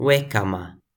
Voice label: "wekama", Toki Pona